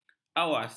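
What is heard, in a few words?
"awas", Malay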